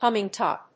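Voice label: "humming top", English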